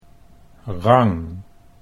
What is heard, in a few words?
"rang", Dutch